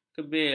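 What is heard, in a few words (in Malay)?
"kebil", Malay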